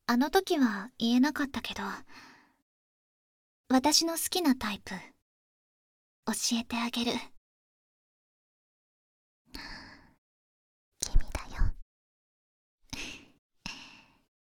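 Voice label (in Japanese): あまあまボイス集（バイノーラルマイク）, ASMR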